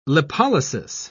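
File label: "lipolysis", British English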